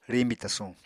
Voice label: "riñbi tasuñ", Apatani

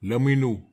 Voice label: "laminu", netwar

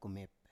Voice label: "kumep", Biak

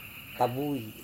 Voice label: "tavui", Serewen

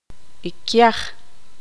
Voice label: tzutujil; hacha

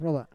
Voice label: "rova", Roon

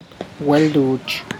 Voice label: "type of grasshopper", tlacochahuaya

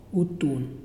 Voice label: "star", Muyuw; Lae Unitech

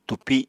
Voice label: "túpi", Apatani

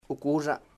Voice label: cloud, chamacoco

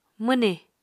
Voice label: "mwnì", Apatani

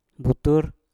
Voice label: "butur", Mpur; telapak kaki

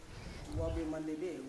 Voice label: "wave mandebe" you three, Yawa

